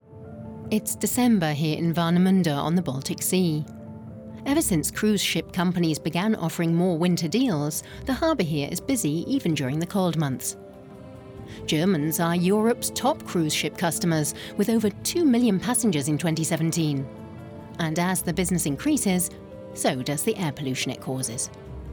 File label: Voice Artist TV NARRATION